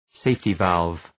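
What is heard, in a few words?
"safety-valve", English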